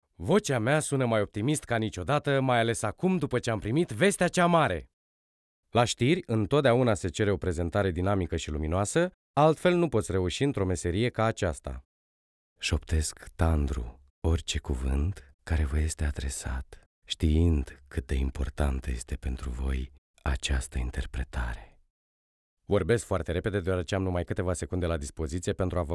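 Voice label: romanian male narration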